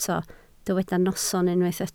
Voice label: "noson", Welsh